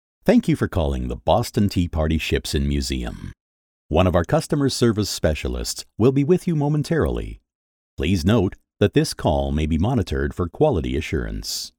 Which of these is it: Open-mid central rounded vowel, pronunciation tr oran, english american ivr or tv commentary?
english american ivr